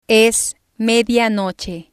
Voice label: "es medianoche", spanish